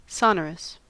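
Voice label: "sonorous", US English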